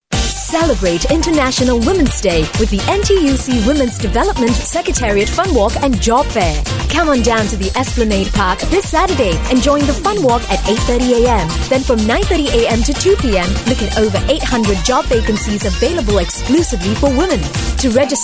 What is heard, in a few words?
Asian English Warm; NTUC